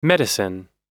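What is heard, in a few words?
"medicine", English; oxford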